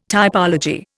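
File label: "typology", Indian English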